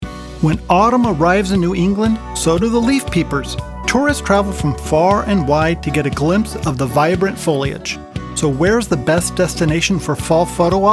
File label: Narration